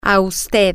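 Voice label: to You, Spanish, female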